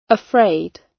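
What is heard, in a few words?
"afraid", British English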